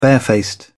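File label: "barefaced", British English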